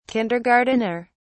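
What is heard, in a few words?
"kindergartener", British English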